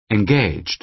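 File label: "engaged", American English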